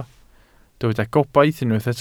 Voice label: "gobaith", Welsh

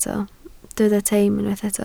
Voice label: "teim", welsh

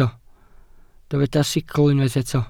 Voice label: "sicl", welsh

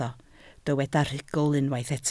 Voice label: "rhugl", Welsh

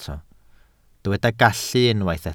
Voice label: "gallu", Welsh

